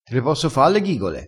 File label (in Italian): "ghigole", crespinese